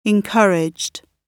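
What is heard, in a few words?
"encouraged", British English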